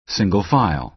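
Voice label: "single file", American English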